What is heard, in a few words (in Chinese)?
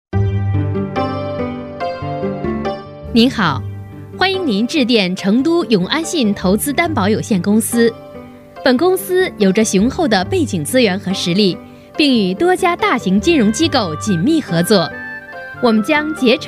彩铃; 成都担保有限公司